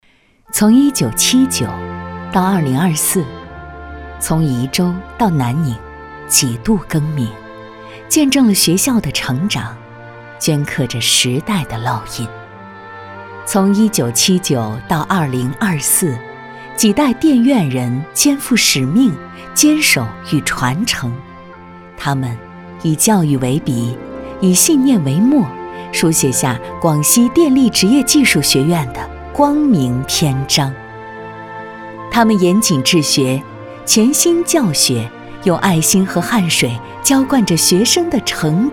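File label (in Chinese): 女 感人温馨回忆感慨历程见证人物画像类, 国语配音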